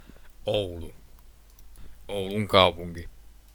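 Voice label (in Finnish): "Oulu", Finnish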